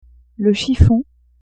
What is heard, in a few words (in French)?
"chiffon", French; vetements